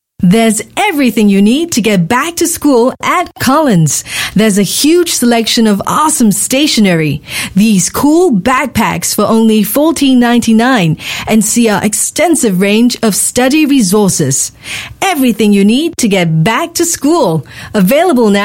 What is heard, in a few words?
Asian English Hard Sell TVC